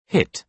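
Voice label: "hit", British English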